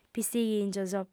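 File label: "pistighìngios", female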